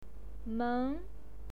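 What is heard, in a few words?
"meng" second tone, pinyin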